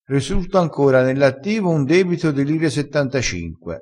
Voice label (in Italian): "resurta", vocabolario dialettale